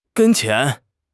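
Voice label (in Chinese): "跟前", Chinese; HSK; HSK6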